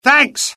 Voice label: alert, UK; dialogue; mohaa